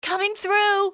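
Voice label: coming thru, english female; XBOX; civ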